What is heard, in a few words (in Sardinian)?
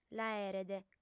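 "l'aeret", Sardinian, female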